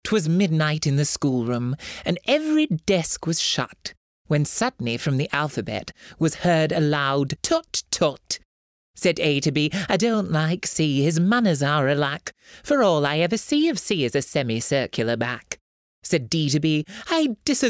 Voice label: Poem Read